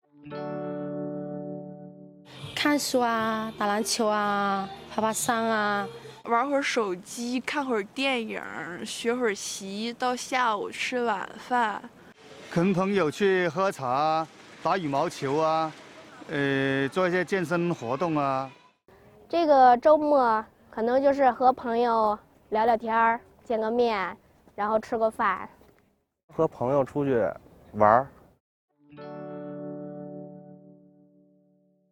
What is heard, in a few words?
Mandarin Accent Challenge North or South